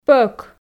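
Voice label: "пӧк", Komi, female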